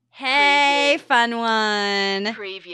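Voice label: Woman Says Hey Fun One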